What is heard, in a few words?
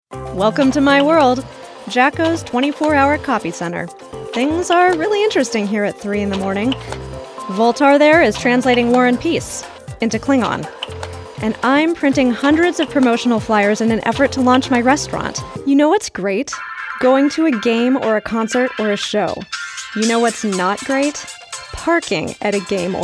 Comercial